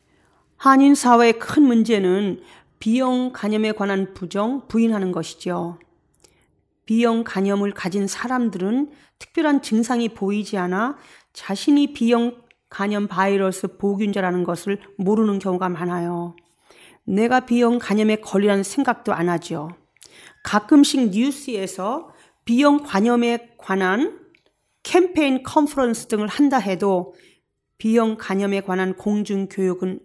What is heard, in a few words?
Korean; hepatitis; AskHepatitisCampaign